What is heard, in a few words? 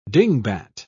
"dingbat", pronounce